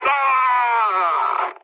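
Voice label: damaged pilot, mood high, French; War Thunder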